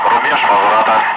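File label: Russian speech, Ka-50 Wingman; Messages bingo fuel; DCS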